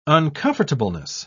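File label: "uncomfortableness", British English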